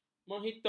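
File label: "menghitung", Malay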